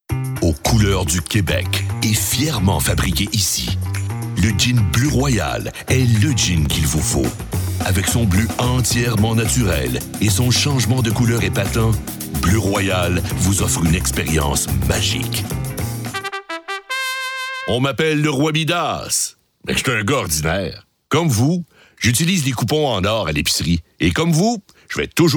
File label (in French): publicite